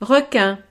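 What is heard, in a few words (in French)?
"requin", French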